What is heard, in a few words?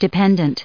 "dependent", en us